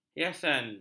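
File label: "hiasan", Malay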